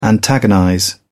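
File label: "antagonize", British English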